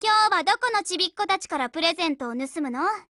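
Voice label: HELLO Japanese, Voice; GF Alarm; Destroyer Christmas buhuo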